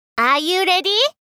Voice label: are you ready！大人×中音域